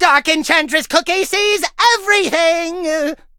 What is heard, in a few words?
battle, en; cookie run